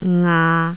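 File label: "ngaa6", Cantonese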